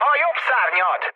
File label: right wing, mood low, speech hun; War Thunder